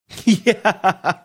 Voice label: saying yeah while laughing